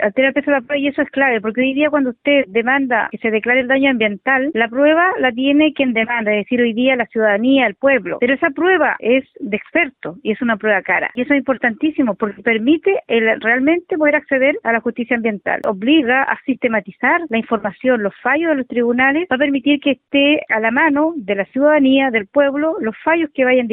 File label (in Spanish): CUÑA ABOGADA